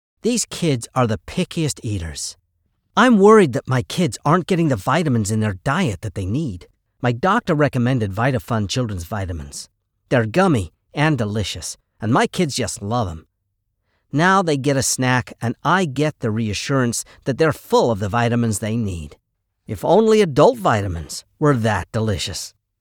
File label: gen am health info